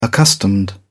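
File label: "accustomed", British English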